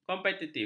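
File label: "kompetitif", Malay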